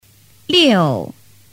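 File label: "liu", Mandarin Chinese; so dem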